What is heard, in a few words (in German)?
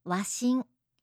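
"washin", japanische Mädchennamen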